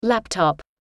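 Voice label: "laptop", English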